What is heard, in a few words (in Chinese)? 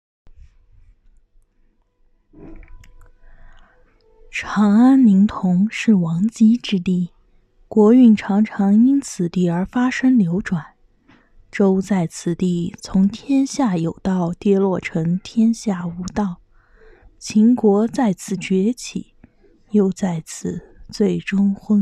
纪录片试音